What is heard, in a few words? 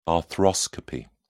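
"arthroscopy", English, British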